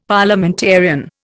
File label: "Parliamentarian", Indian; Dictionary